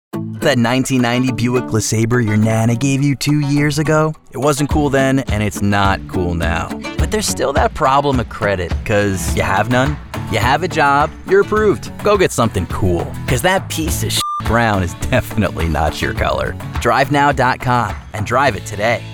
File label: Automotive, commercial voiceover